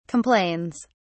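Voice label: "complains", British English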